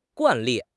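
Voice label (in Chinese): "惯例", Chinese; HSK6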